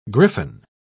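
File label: "griffon", British English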